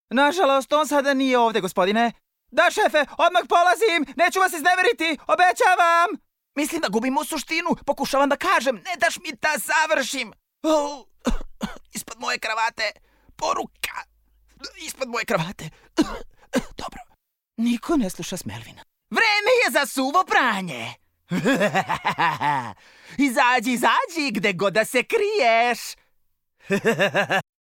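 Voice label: Serbian male character; voice demos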